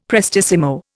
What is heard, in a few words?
"prestissimo", Indian; Dictionary